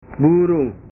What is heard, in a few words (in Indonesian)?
"burung", bahasa